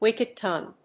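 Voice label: "wicked tongue", English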